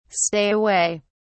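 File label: "stay away", British English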